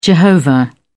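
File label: "jehovah", British English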